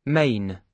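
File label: "mane", British English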